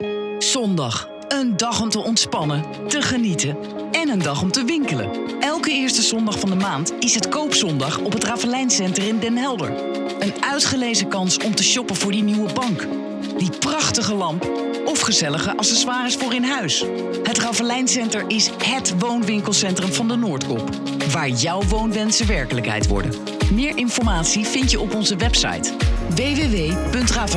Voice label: Commercial; Ravelijn Center